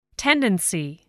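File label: "tendency", English word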